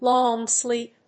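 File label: "long sleep", English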